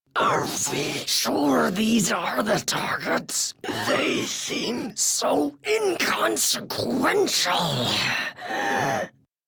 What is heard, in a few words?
Death Squad Taunt; Shik Tal; Warframe; en